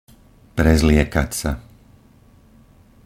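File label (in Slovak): "prezliekať sa", Slovak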